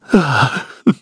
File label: Human Sad, kr; voices; heroes; Shakmeh; kingsraid